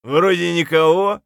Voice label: clear, voice; Escape from Tarkov; scav4

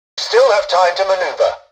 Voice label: tutorial dialogue, english; War Thunder

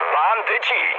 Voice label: enemy detected mood med, speech pol; War Thunder

